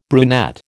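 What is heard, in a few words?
"brunette", American English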